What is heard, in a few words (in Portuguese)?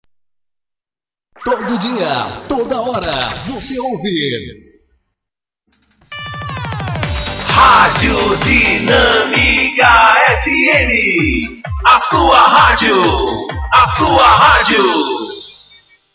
radio dinamica fm